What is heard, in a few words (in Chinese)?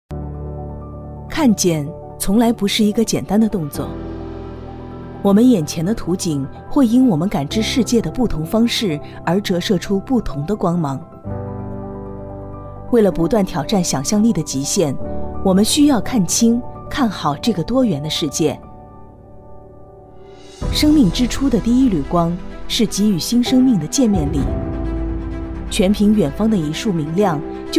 大气